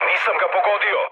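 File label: Serbian bomb failure mood med, speech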